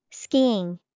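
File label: skiing, English vocabulary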